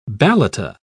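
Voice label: "balata", British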